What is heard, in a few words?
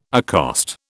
"accost", British